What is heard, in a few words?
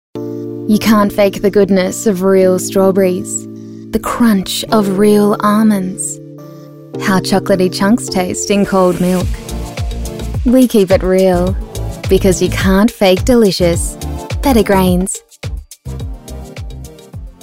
VO Music; Sexy Better Grains